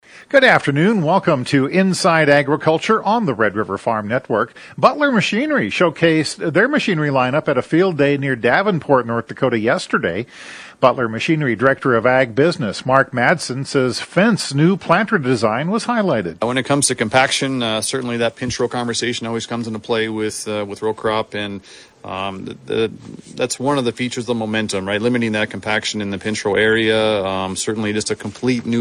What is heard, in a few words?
air check; Machinery